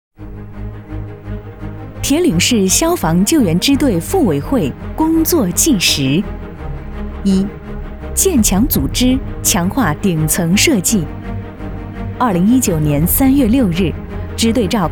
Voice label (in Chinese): 女 专题宣传; 妇女联合会